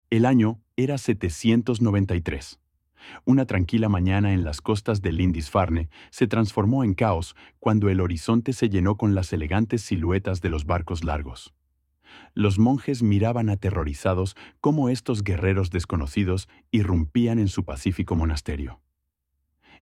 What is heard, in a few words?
Practice your Spanish speaking skills